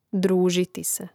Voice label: "družiti se", hrvatski; mreznik

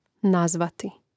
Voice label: "nazvati", Hrvatski; neizvorni; mreznik

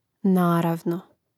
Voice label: "naravno", Hrvatski; neizvorni; mreznik

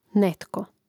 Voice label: "netko", Hrvatski; neizvorni; mreznik

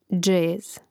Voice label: "džez", hrvatski

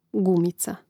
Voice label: "gumica", hrvatski; mreznik

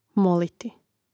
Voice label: "moliti", Hrvatski

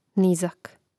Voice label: "nizak", Hrvatski; neizvorni; mreznik

